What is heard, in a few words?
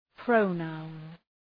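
"pronoun", English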